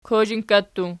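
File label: "kozhinkka" plural, Archi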